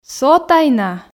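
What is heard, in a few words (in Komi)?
"со тай на", Komi, female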